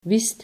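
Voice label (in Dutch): "wist", Dutch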